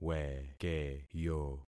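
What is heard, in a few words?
"wekeyo", concatenated syllables; Affixing experiment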